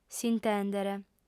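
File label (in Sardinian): "s'intèndere", female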